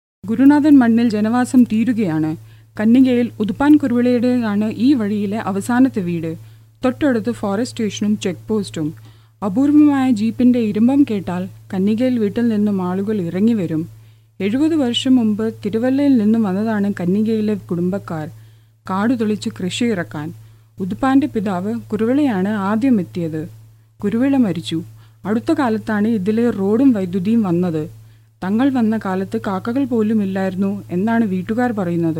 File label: Malayalam Female